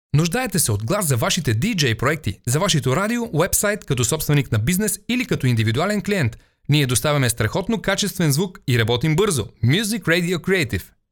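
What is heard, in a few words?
Bulgarian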